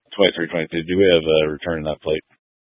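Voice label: Sheriff Dispatch, Scanner audio recording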